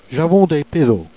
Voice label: "j'avons des pithots", Jèrriais

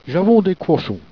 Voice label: "j'avons des couochons", Jèrriais

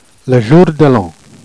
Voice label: "jour de l'an", Jèrriais

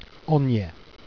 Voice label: "aniet", Jèrriais

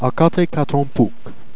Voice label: "catenpouque", Jèrriais

